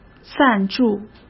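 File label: "赞助", Mandarin Chinese; hsk; chinese-flashcards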